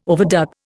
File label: "overdub", Indian